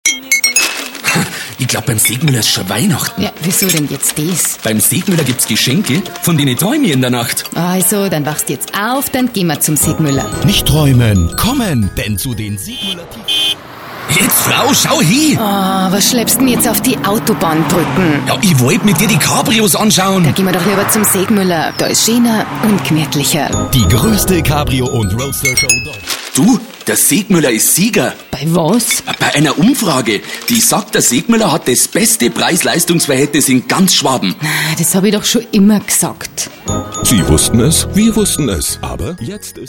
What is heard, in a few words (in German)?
Spots landesweit bayerisch